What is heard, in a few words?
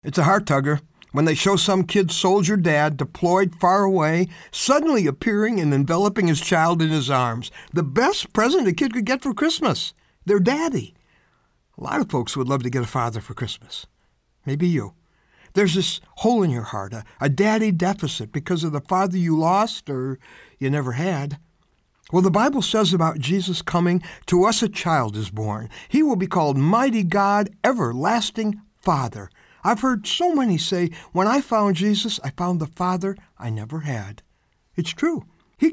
dry; A Kids Best Christmas